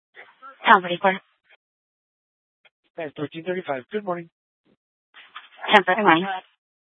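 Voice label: Police Department North Dispatch, scanner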